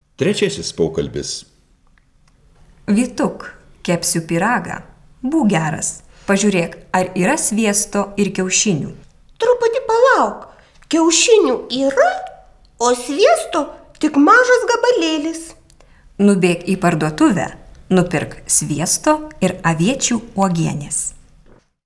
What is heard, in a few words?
Dialog